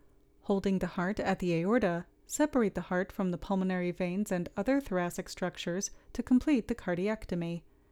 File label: Voiceover